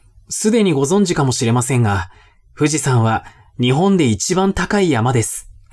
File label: man